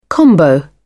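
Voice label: "combo", British English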